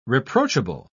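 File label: "reproachable", British English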